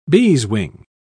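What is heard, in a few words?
"beeswing", English, British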